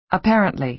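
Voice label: "apparently", American English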